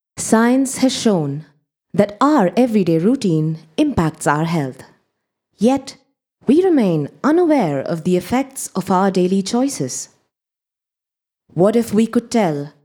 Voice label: Indian English, Female; Akti